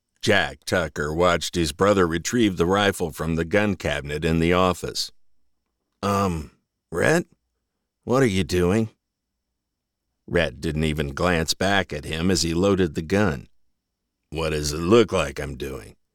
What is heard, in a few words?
Third Person Fiction Male